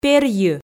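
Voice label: "перйы", Komi, female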